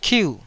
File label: "kiu4", taiwanese syllable